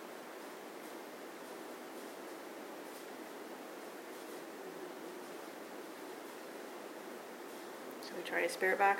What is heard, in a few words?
Main Floor MUMBLE, library; Hackley public library; Muskegon MI